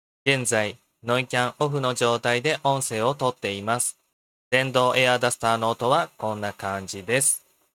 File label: fifine m9 microphone